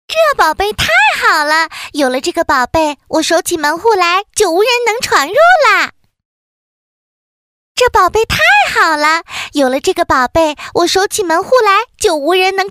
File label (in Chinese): 动画片, 女; 椒图